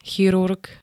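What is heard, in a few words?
"chirurg", Slovak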